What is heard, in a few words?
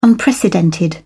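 "unprecedented", British English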